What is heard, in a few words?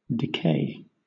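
"decay", British English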